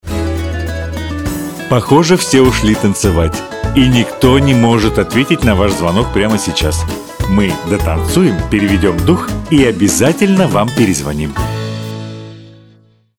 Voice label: RUS